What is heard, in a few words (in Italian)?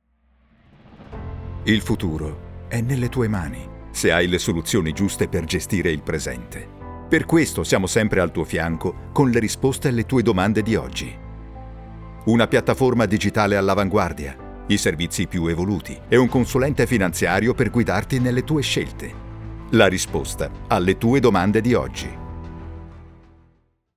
istituzionale caldo profondo serio istituzionale tecnico